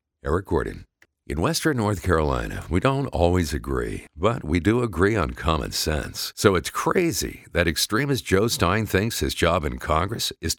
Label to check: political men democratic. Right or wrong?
Right